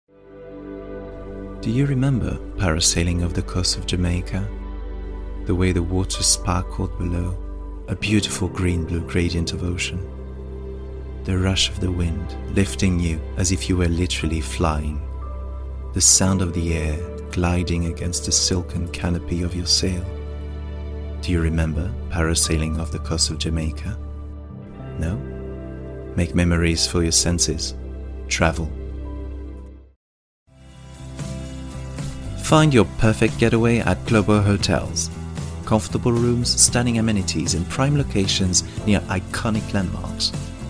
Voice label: English

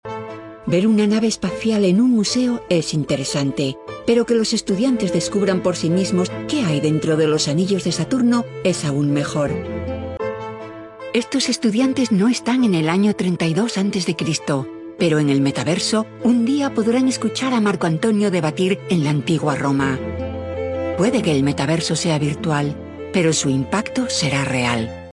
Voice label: Spanish

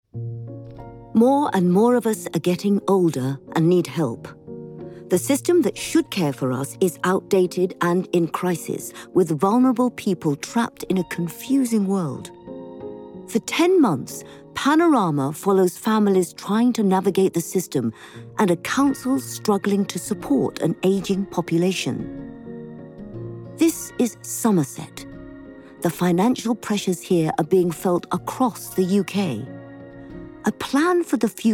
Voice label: Documentary; 40s-60s. Female. British Asian/RP.

